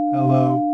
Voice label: Noisy Hello